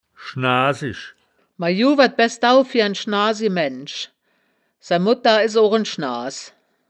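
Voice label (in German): "schnaa-sisch", wittlicher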